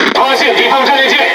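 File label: Speech Detection Enemy Battleship, Chinese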